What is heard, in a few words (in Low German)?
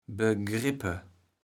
"begrippe", kaevels platt